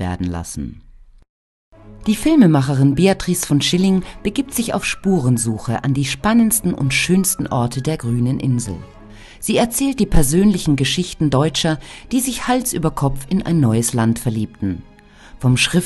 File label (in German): doku irland